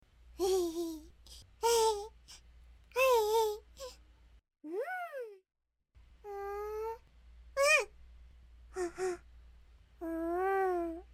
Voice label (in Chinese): 外籍日语女 角色 游戏用语气音 小女孩